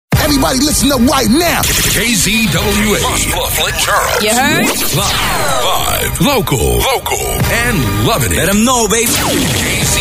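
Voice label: Imaging Male, Edgy, Big, Urban, Radio Imaging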